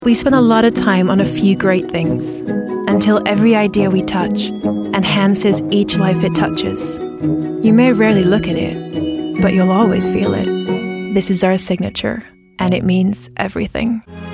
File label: female english usa and swedish usa accent commercial compilation